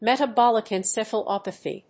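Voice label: "metabolic encephalopathy", English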